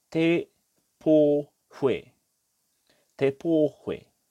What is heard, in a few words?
"Te Pohue", te reo